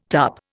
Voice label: "tup", Indian